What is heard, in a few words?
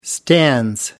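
"stands", British English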